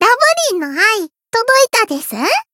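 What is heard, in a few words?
voice win Japanese; loveli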